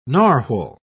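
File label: "narwal", British English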